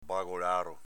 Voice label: "bagolaro", Veneto

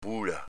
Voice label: "bula", Veneto

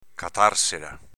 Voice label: "catarsela", Veneto; v. difett. scappare, andarsene

